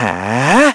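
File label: Vox Casting, korean; Evan; kingsraid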